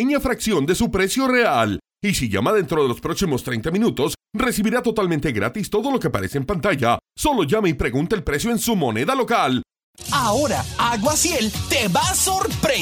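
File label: Spanish Latin America male voice over, sales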